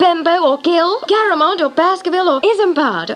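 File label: bembao gil isambod garamando, gibberish; Voice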